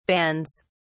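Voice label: "bands", British English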